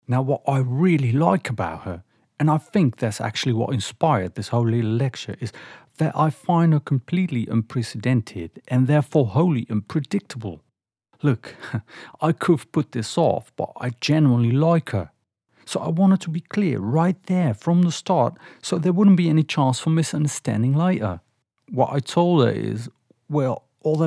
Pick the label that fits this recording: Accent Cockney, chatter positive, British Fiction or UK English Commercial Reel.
Accent Cockney